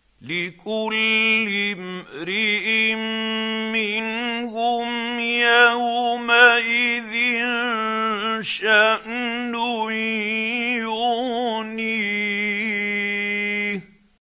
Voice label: quran, Hussary, tafseer; Abasa